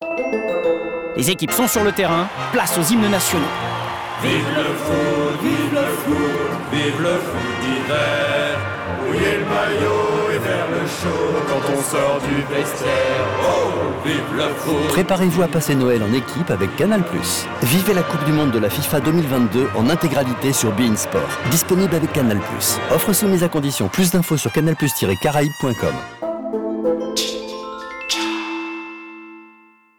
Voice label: radio; Canal; Caraibes

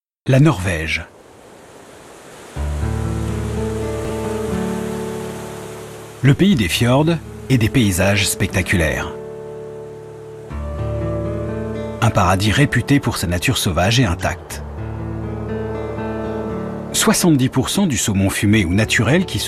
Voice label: Voix Narrative posé journalistique; France 5; La vie pas si rose du saumon fumé

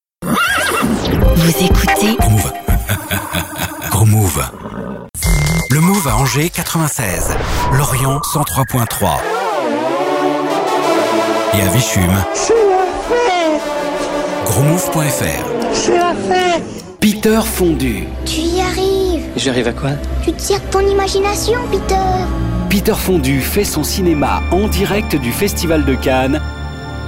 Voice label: Habillage; Mouv

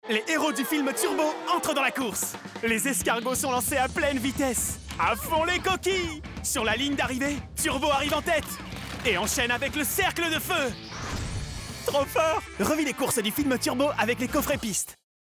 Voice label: SPOT TV; MATTEL TURBO ZIPLINE

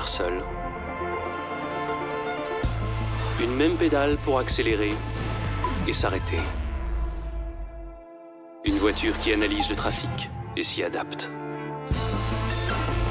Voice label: nouvelle publicite; nissan leaf

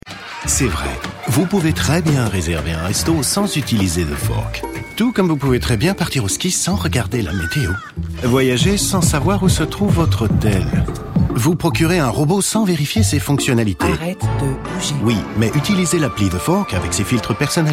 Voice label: PUB TV; TheFork; A table

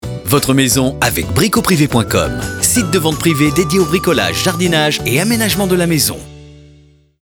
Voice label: Dynamique Souriant; Bricoprive

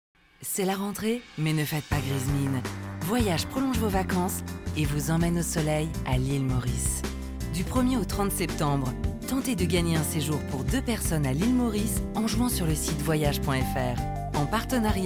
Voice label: SPOT VOYAGE ILE MAURICE

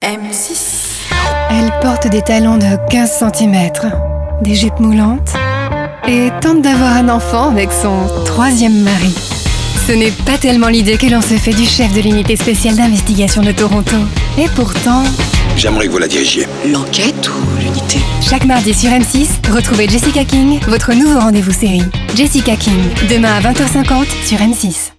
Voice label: BANDE ANNONCE; M6; Jessica King

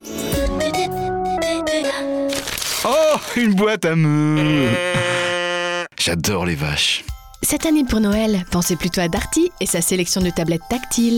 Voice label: promo technique; Darty

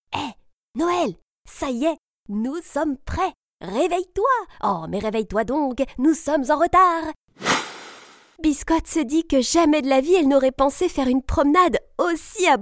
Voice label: NARRATIONS JEUNESSE Medley

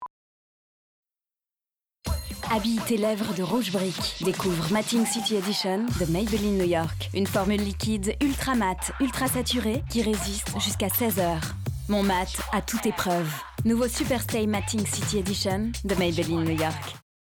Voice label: Branchee dynamique; MAYBELLINE

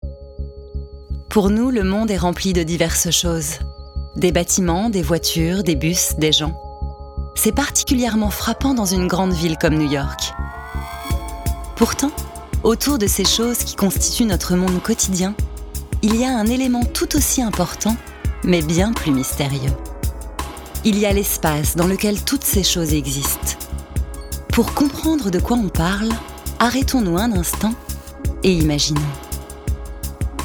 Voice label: Docu maquette; Mondes parallèles

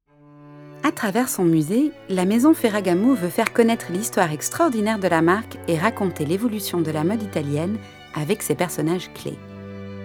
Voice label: Voix informative posée naturelle; Musée Feragamo

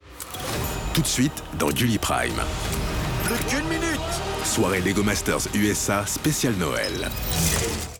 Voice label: Bande annonce, FR; Gulli Prime; Lego Master USA

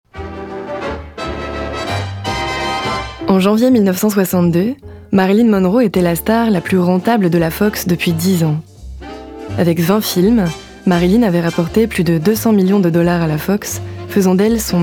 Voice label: Docu; Marilyn

